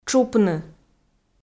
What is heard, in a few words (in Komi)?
"тшупны", Komi